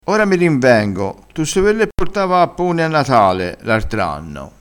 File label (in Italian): "rinvenissi", crespinese